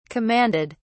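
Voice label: "commanded", English, British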